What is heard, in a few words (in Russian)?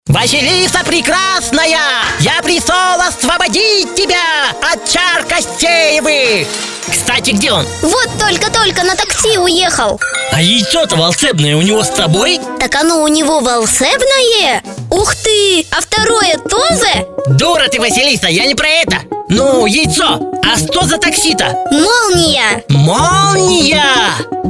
shou shepelyavyix